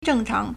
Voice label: "zheng4chang2", Chinese